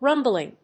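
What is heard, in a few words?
"rumbling", English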